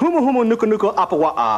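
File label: hooma hooma nooka nooka alla awah, gibberish; Voice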